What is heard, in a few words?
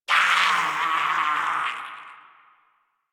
Death, Battle, Barks, en; Warframe; Grineer Wolf Gangster